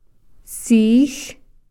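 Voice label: "venison", Takelma word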